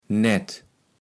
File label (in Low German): "Nätt", kaevels platt